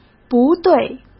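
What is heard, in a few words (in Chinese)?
"不对", Mandarin Chinese; hsk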